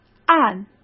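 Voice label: "按", Mandarin Chinese; chinese-flashcards; hsk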